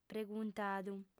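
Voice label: "preguntadu", female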